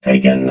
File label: "taken", robot vox